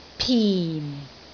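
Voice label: "pin4", Cantonese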